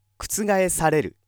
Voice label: male